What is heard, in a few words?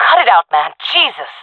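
taking fire friendly, female voice; player vo; Counter Strike Model Pack; CS:GO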